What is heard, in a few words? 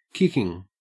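"kicking", Australian English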